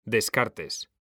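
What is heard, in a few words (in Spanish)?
"descartes", Spanish; mekanta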